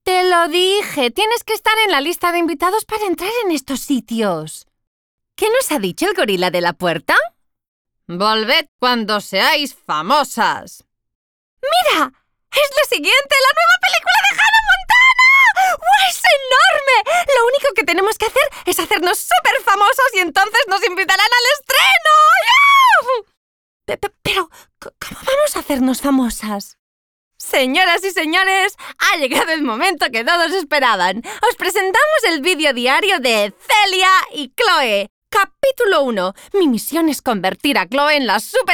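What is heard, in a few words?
European Spanish, Characters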